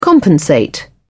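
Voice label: "compensate", British English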